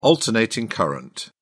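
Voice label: "alternating current", British English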